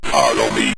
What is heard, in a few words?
follow Me, Synth Voice; Kenney's Sound Pack